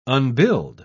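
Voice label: "unbuild", British English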